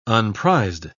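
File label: "unprized", British English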